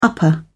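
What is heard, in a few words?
"upper", British English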